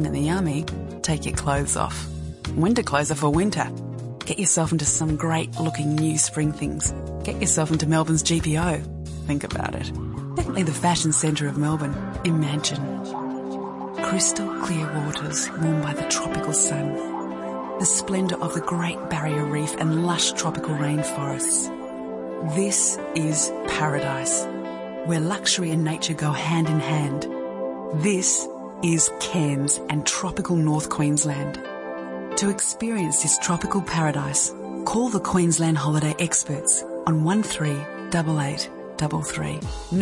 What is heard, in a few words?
voice demo